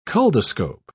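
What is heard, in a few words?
"culdoscope", British English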